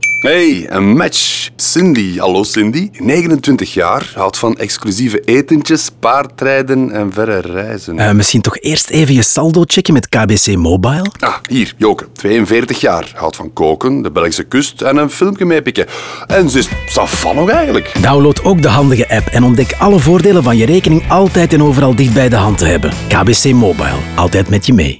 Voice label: radio; kbc mobile; campagnetinder